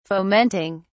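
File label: "fomenting", British English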